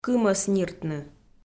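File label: "кымӧс ниртны", Komi, female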